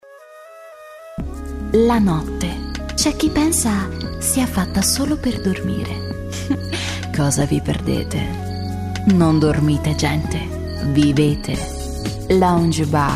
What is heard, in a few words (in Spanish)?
locutora italiana